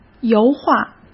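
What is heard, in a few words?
"油画", Mandarin Chinese; hsk; chinese-flashcards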